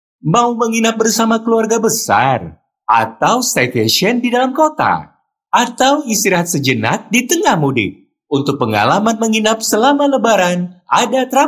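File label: CASUAL Indonesian; Traveloka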